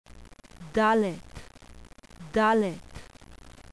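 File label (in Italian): "dalet", Ebraico; qabalah; alfabeto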